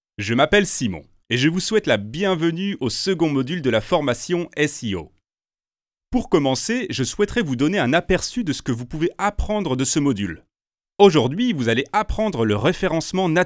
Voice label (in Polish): Lektor francuski; Studio Nonagram